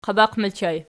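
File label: "qabaq" ergative plural, Archi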